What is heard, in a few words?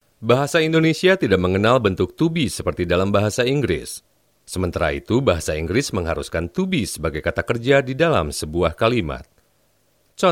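Indonesian e-learning; GoLocalise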